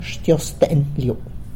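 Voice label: "Xtyozën liu", Tlacochahuaya Zapotec; Thank you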